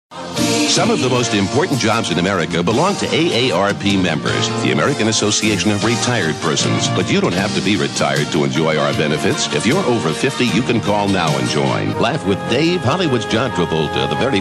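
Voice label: English, US, male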